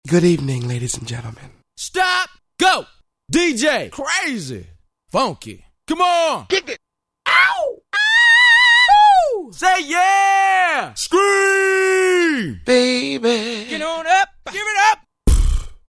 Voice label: Voice Menu, Roland SR-JV80-12 Hip Hop Collection